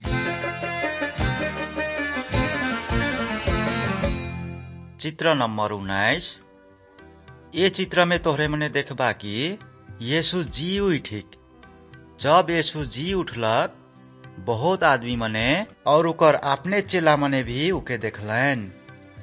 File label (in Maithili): good news maithili, nt drama